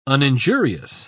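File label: "uninjurious", British English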